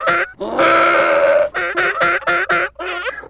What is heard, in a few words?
vox tusken